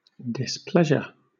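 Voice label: "displeasure", British English; pronunciations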